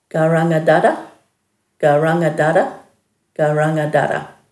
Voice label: "garangadada", Dhurga